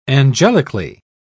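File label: "angelically", British English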